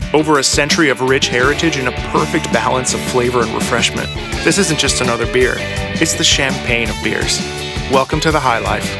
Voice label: Cool Confident